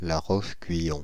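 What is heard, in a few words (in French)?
"La Roche-Guyon", French, Paris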